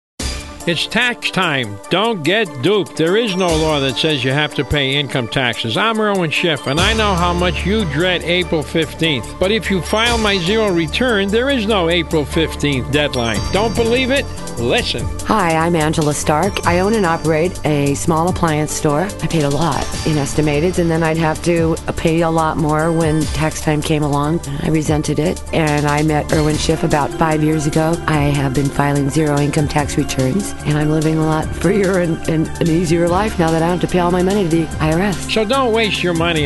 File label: Commercial; Schiff